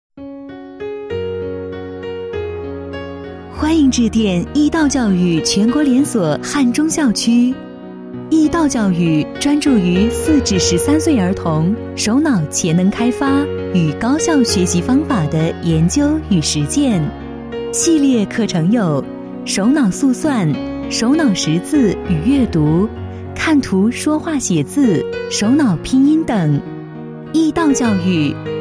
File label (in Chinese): 女 彩铃 亲切自然